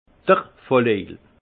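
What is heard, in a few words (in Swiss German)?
"volllojel", alsace Haut-Rhin; vin; ivrogne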